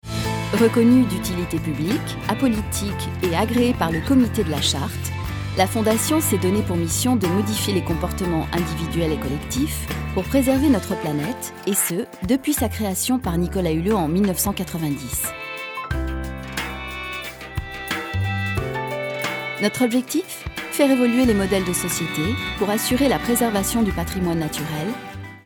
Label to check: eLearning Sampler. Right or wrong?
Wrong